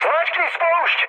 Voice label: attack position, speech cze, mood med

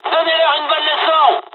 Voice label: dialog, dialogs french; War Thunder; midway

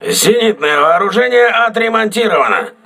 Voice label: voice message aa turret repaired, russian

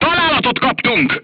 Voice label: voice message player tank hit, hungarian

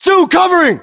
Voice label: response covering, battlechatter us; localized english

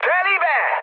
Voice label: bomb success, mood high, speech hun; War Thunder

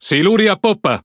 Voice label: It Speech Alarms Torpedo Back; World Of Warships

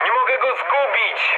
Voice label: aircraft damaged mood med, speech pol

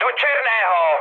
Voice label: bomb success, speech cze, mood med; War Thunder

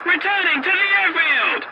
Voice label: voice message return to base, english

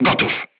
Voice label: voice message charged, crew tanks polish

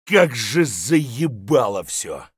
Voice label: mutter; Escape from Tarkov

